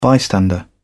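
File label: "bystander", British English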